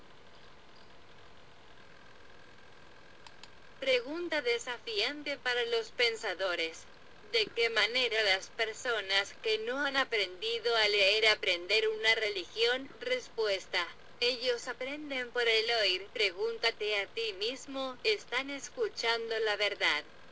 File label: Hearing message in Spanish